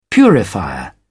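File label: "purifier", British English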